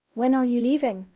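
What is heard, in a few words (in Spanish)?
Voice Acting, es, female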